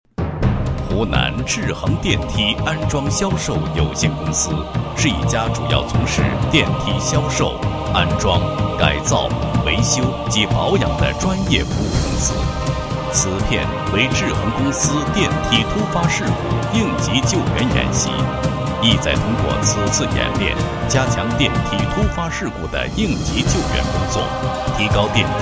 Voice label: 男 解说专题, 配音; 电梯突发事故应急救援演习解说

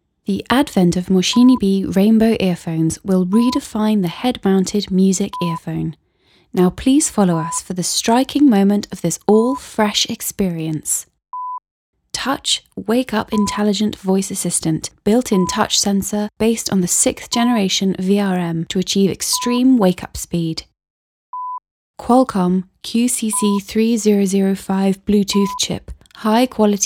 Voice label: 耳机广告

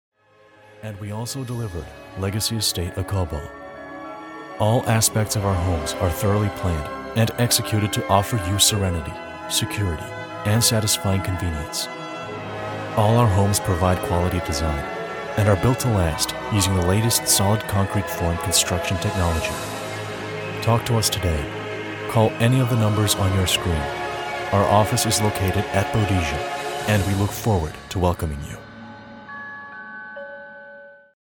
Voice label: 外籍英男 广告

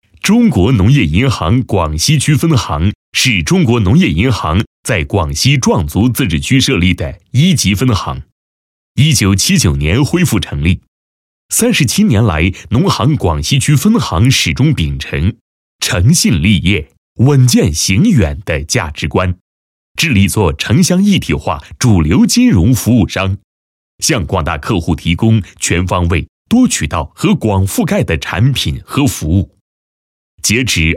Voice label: 男 银行企业宣传 宣传配音; 中国农业银行广西区分行

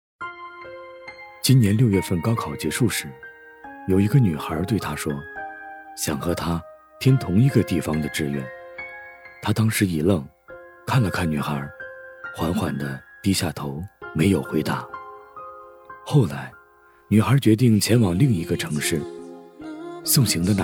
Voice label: 男 电台 视频小述

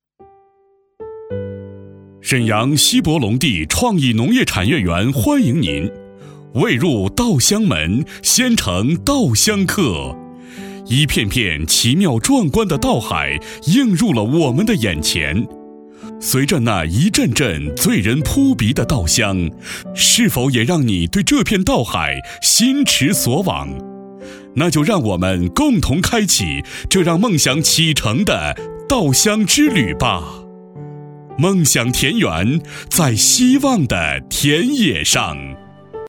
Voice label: 男 专题 大气; 锡伯龙地创业农业产业园

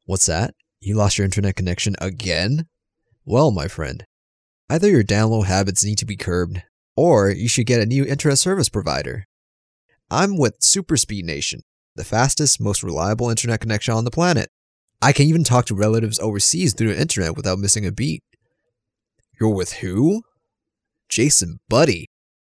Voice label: 外籍英男 干音 叙述

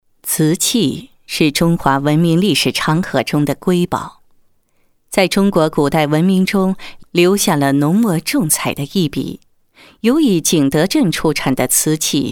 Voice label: 女, 品牌广告; 景德镇青花瓷餐具

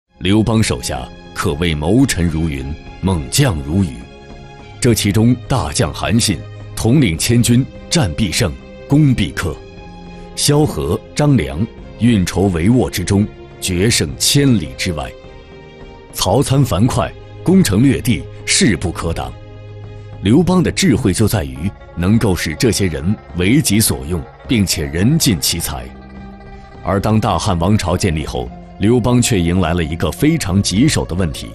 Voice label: 男, 纪录, 自然, 讲述; CCTV10-百家讲坛-栏目导视

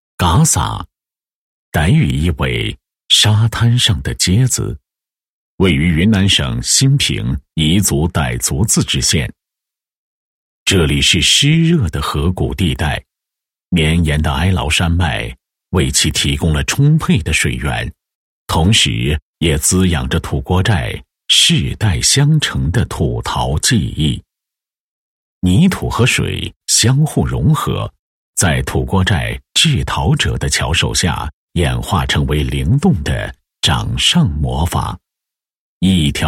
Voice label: 男 解说 土陶技艺解说配音

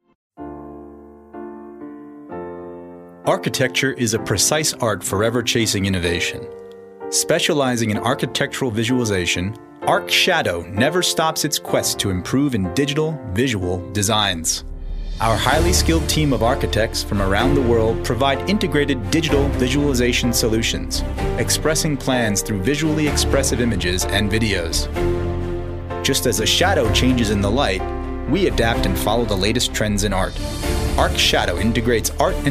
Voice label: 美式男声 磁性自然

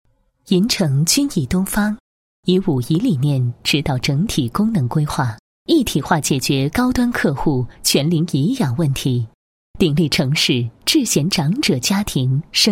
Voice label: 女-房地产; 银城君颐东方

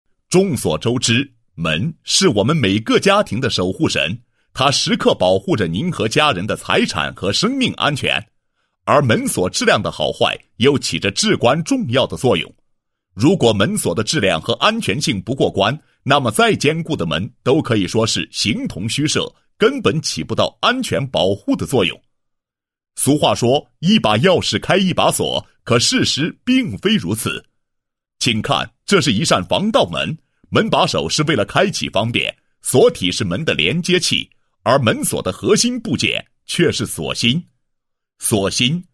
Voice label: 男 解说词, 配音; 佳卫门店解说词

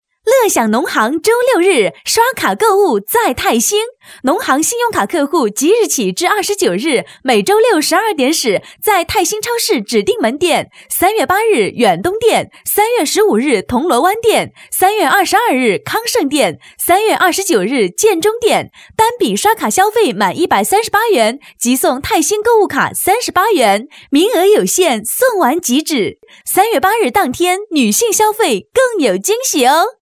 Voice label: 女 广告 促销 刷卡 超市; 农行刷卡泰兴超市

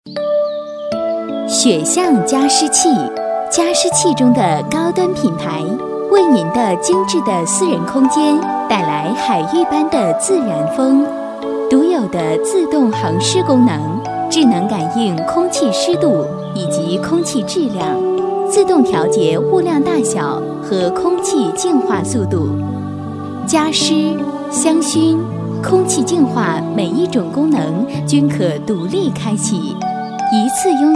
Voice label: 女 年轻甜美, 解说 产品介绍 加湿器

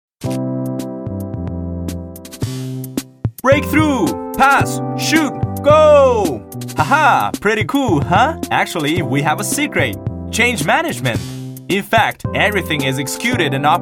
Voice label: 英男 轻松欢快 英语; 飞碟说类型

